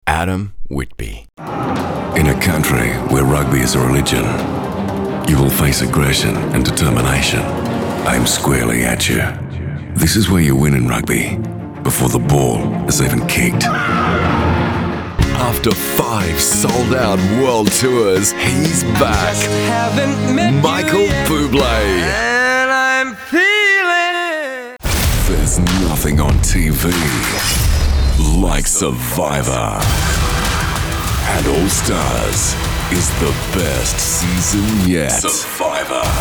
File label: promos